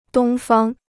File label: "东方", Chinese